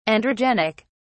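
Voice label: "androgenic", English, British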